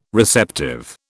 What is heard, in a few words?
"receptive", British